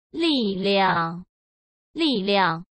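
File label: "li4 liang4", Mandarin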